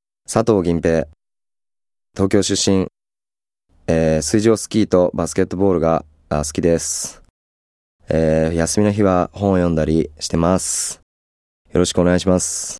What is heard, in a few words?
freetalk, male voice